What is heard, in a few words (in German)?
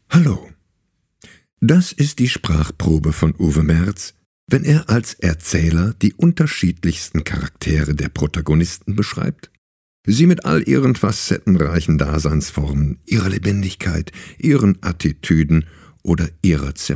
Gamer Sprachproben